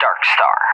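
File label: "Darkstar", English, USA; CallSign; DCS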